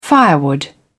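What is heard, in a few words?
"firewood", British English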